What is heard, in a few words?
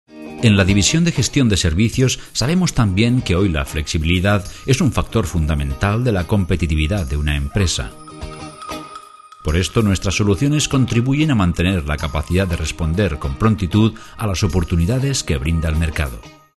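EU Spanish corporate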